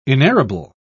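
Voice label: "inerrable", British English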